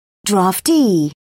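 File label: "draftee", British English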